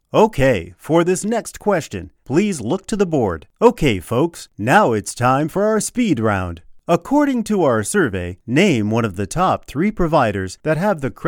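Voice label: English, American, male, narration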